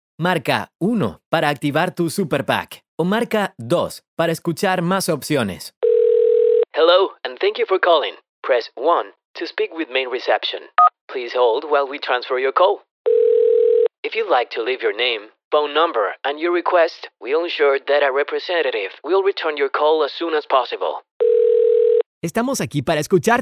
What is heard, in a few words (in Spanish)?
Locutor para IVR Central Telefonica Voz Panama Locutor Panameno